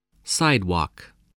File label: "sidewalk", spelling